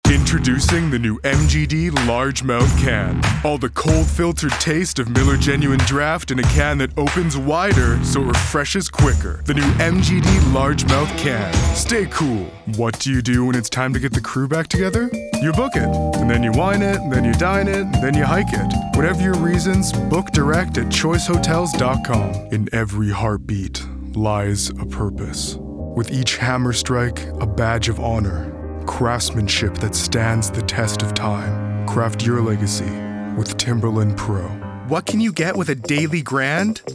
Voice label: Commercial